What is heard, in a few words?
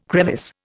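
"crevice", Indian English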